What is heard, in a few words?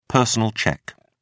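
"personal cheque", British English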